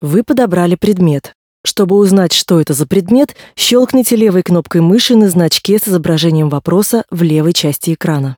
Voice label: tutorial voice ru; lineage2